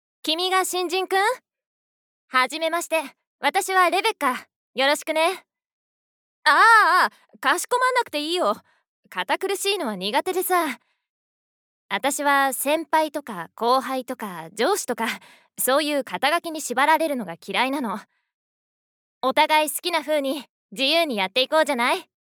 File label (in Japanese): VS 吹替風20代女性